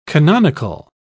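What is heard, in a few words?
"canonical", British English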